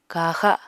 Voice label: "kaxa", apatani